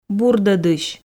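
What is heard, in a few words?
"бурдӧдысь", Komi, female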